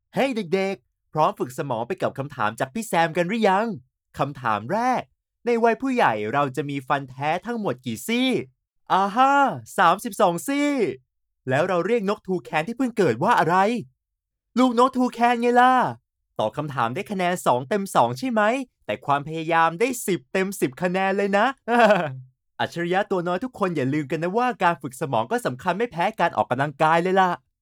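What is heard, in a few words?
Thai, male; thai voice over talent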